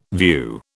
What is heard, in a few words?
"view", British